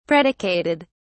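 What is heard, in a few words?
"predicated", English, British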